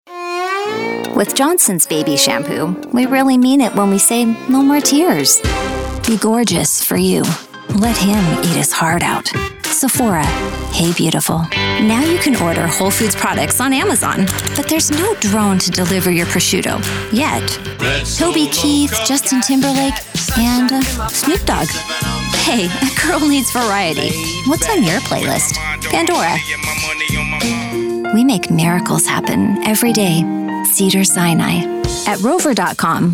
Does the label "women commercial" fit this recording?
Yes